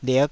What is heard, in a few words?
"lik5", Taiwanese syllable